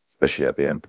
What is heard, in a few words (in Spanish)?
Voice Acting es M